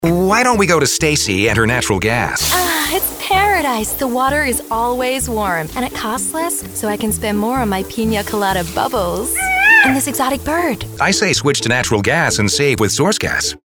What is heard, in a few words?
Regional Commercial; Source Gas